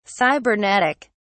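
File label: "cybernetic", British English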